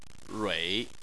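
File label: "rui", Chinese, tone 3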